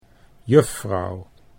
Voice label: "juffrouw", Dutch